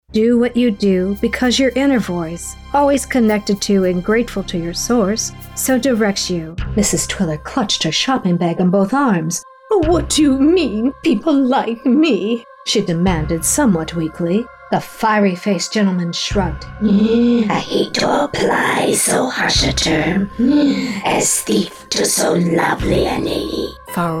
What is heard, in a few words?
Audiobook